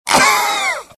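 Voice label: death, dialogue generic Italian; mohaa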